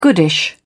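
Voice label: "goodish", British English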